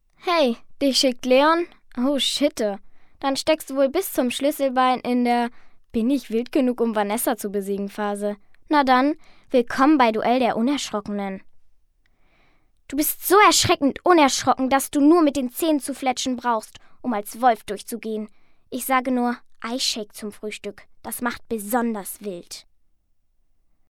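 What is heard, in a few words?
german female kid character; voice demos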